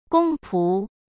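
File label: "公仆", Mandarin Chinese; hsk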